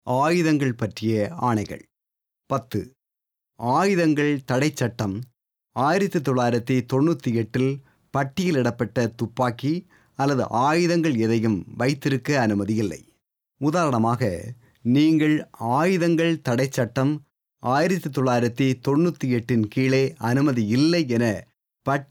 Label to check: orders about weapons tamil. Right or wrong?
Right